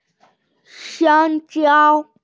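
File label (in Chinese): "xiang1jiao1", Mandarin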